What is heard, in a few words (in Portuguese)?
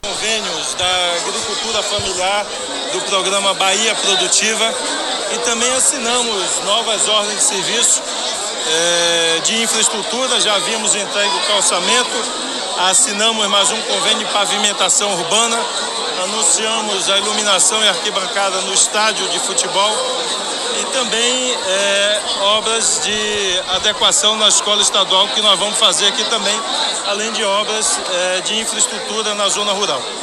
ANUNCIO; Nova Fátima